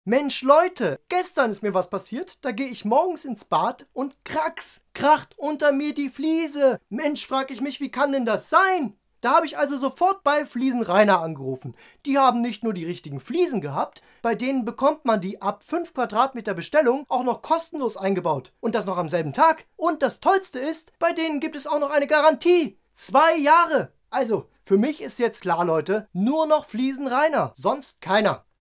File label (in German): Werbung